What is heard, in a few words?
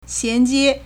"xian2jie1", Chinese